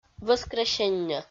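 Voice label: "вӧскресенньӧ", Komi, female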